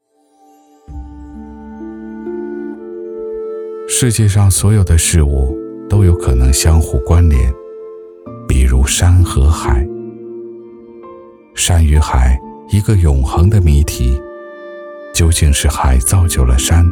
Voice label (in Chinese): 纪录片; 莽林斗士